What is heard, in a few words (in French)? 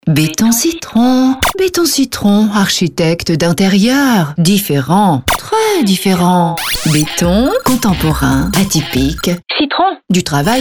radio spot